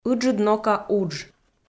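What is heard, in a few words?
"ыджыд нока удж", Komi, female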